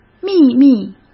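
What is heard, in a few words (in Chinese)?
"秘密", Mandarin; chinese-flashcards; hsk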